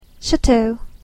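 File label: "chateau", English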